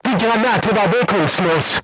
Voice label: voice message armor not pierce, crew tanks german

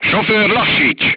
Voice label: voice message drive slowly, crew tanks hungarian

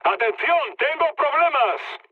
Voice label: aircraft damaged, mood med, speech; War Thunder

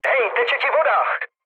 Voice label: damaged water, speech cze, mood low; War Thunder

